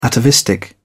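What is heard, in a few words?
"atavistic", English, uk British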